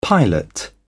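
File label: "pilot", British English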